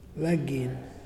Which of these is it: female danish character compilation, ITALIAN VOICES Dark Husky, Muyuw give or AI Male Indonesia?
Muyuw give